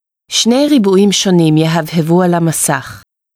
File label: Two Squares, hebrew